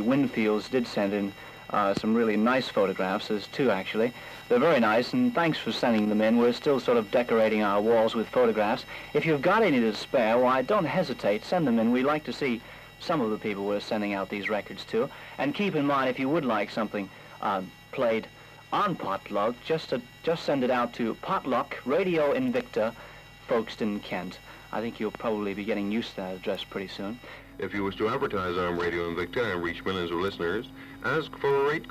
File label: Pot Luck requests and advertising announcement; R Invicta